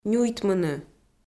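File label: "нюйтмыны", Komi, female; kpv audio dict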